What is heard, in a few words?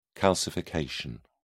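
"calcification", English, British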